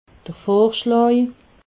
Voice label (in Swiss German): "vorschlaa", alsace